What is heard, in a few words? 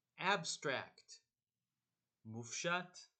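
"abstract" English, "מופשט" Hebrew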